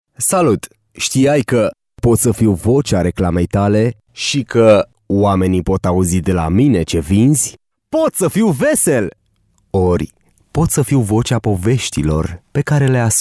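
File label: Romanian male; lektoring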